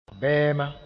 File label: "bɛɛma", moore; grain; units